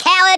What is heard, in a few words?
"COWARD", Australian; Worms Armageddon